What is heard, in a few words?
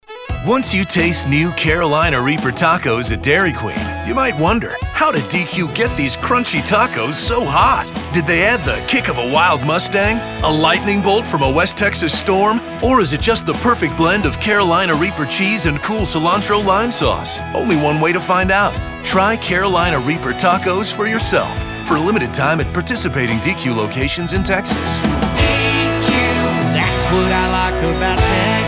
Radio; Reaper Tacos